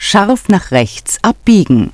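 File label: scharf nach rechts abbiegen, German; Navigation; DISTEFORA Navigation; Mobile Navigator Notebook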